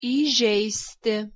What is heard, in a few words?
"iZeisti", Lithuanian